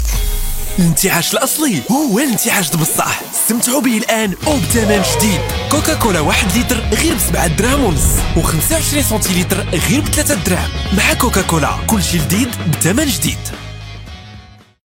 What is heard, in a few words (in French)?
pub tv